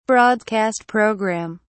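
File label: "broadcast program", British English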